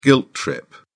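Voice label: "guilt trip", British English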